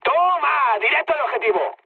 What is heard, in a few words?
bomb success, mood high, speech; War Thunder